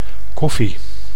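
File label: "koffie", Dutch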